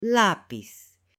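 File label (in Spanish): "LAPIZ", female; JUGUETES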